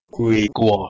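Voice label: "gwigwh3o", Proto-Indo-European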